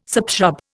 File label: "subshrub", Indian